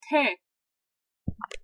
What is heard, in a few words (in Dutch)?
"te", Haïtiaans-Creools; thee-in-andere-talen